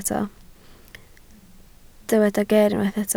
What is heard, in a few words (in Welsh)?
"ger" Welsh